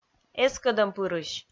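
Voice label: "эскӧдӧмпырысь", Komi, female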